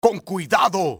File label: watch your fire, spanish soldier; XBOX